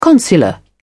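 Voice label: "consular", British English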